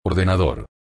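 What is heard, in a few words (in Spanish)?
"ordenador", Spanish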